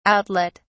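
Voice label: "outlet", British English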